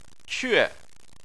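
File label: "que", Chinese, tone 4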